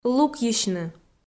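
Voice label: "лукйысьны", Komi, female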